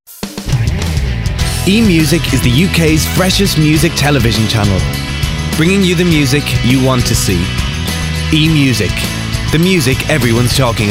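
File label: Irish Commercial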